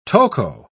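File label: "toco", British English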